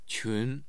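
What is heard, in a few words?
"qun2", Mandarin Chinese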